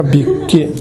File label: "bikki", minamiyamagata; 蛙。〈べっき〉とも。